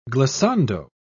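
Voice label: "glissando", British English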